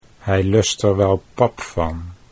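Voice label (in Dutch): hij luistert wel, Dutch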